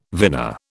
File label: "vina", British